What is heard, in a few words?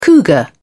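"cougar", British English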